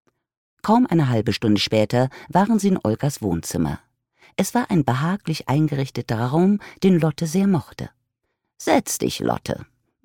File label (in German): Hoerbuch Roman